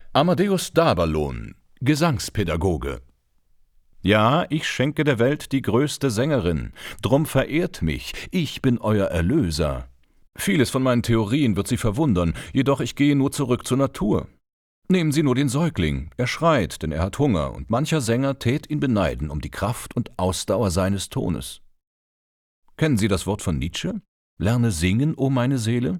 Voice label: Monolog; Daberlohn

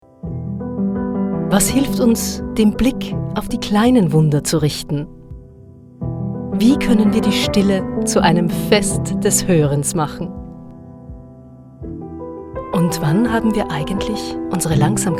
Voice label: Oe Werbung

